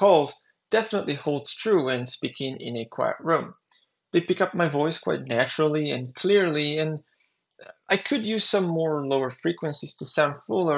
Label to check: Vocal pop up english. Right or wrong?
Wrong